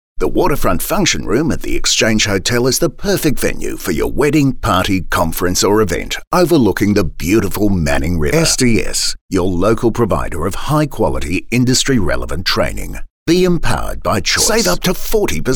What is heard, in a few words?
Soft Sell